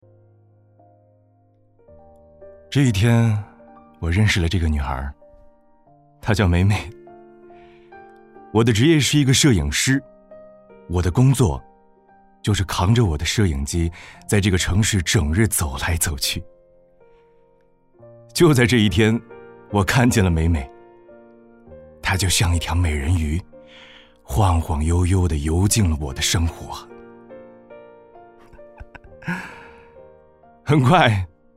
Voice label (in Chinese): 情感暖文